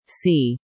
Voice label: "C", female